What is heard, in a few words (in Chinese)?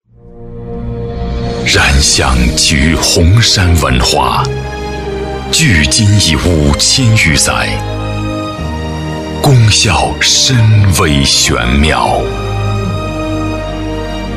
男 专题